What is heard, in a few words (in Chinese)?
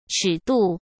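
"尺度", Mandarin Chinese; chinese-flashcards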